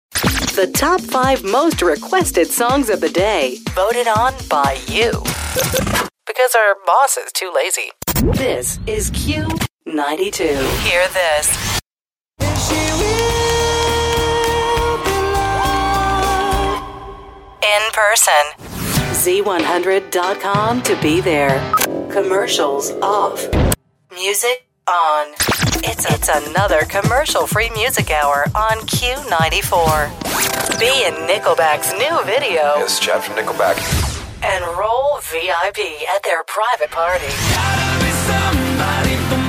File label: american voice continuity radio